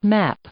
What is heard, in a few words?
"map", English; noun